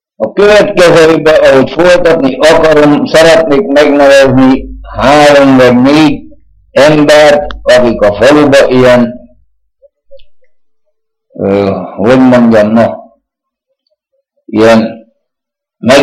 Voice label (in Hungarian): nyelvjaras gyujtesek